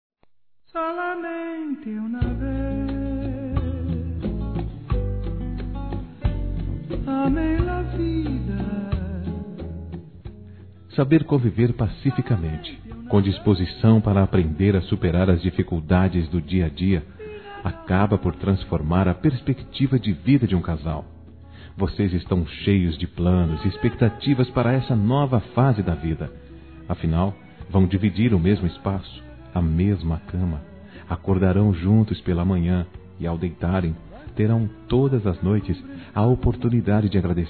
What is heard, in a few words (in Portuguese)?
FELIC. PELO CASAMENTO F; Casamentos; fem; Telemensagens